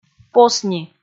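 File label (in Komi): "посни" Komi, female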